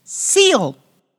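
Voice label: "seal", English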